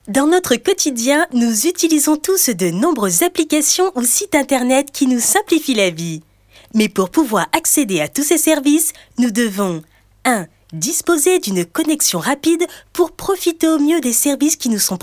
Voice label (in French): motion design Dynamique frais rythmé; Groupement Les Mousquetaires